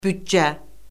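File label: "büdcə", Azerbaijani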